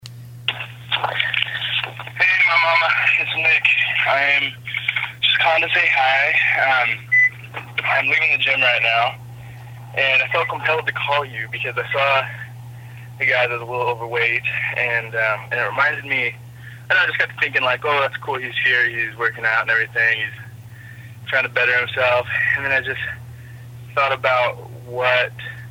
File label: PHONE MSG